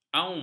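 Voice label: "aum", Malay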